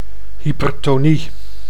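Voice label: "hypertonie", Dutch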